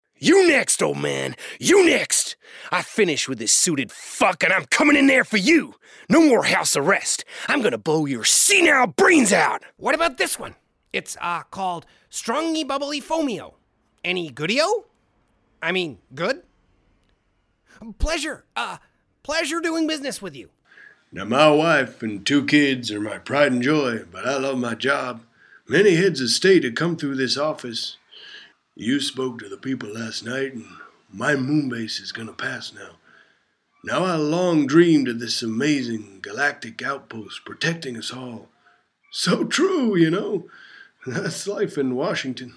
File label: US Character Cartoon Voices